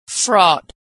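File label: "fraught", American English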